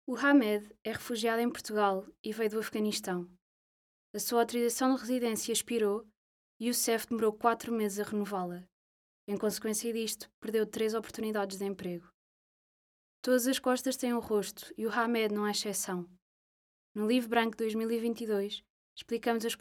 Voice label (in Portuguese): LOCUCAO